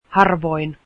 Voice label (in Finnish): finnish rarely, Finnish